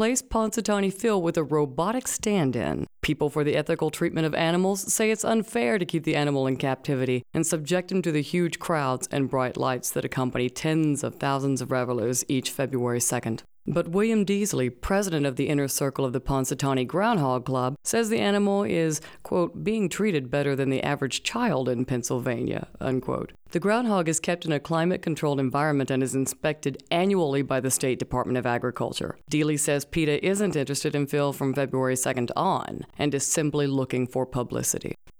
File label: news; Punxsutawney Phil